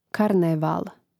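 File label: "karneval", Croatian; Mrežnik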